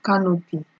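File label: "canopy", Nigerian Pidgin, female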